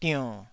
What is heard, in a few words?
"dionn3", taiwanese syllable